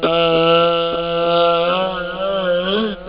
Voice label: aaaaoooo, speech; OctaMED SoundStudio V1

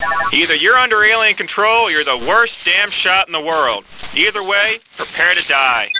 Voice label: Outpost, Computer Voice; X-COM

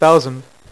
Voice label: "6", poker; iPoker 2000

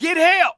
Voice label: detect generic, English Voice

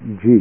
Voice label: "ji", Learn Kana

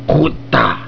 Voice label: "nine", Talking Clock; Klingon BG App

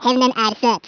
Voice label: "revenge", Norwegian; Speech; 11khz

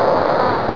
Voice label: Fighter dying; Marathon revisited

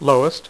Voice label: "lowest", dealer's speech; PowerPOKER Demo

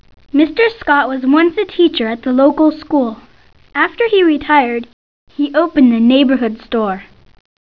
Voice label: Narrator; Scene 10

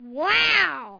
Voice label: boy wow; Microsoft Graphics Studio; Home Publishing 99

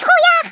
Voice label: coward, French

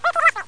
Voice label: "interesnyj", Russian

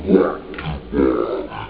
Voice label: rockbody, speech; OctaMED SoundStudio V1

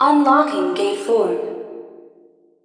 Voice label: UNLOCK, COMPUTER, VOICES; Messiah

